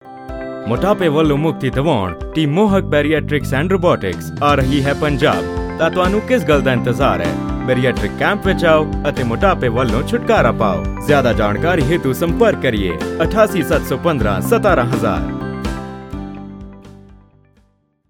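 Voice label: Punjabi, male, ad mix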